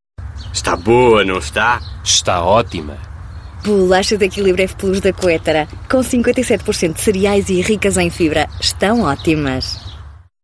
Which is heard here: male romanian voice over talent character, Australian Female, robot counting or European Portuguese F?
European Portuguese F